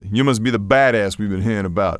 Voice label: male u badass; lmctf